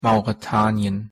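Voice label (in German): "mauretanien", German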